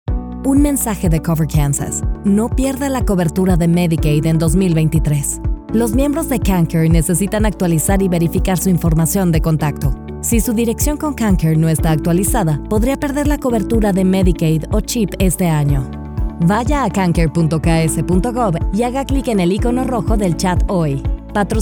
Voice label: Spanish Radio